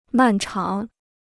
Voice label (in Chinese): "漫长", Chinese